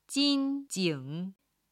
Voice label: "jin1 jing3", Chinese